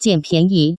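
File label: jian pianyi, Taiwan Mandarin; chinese idioms; chengyu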